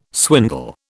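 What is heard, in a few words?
"swindle", British English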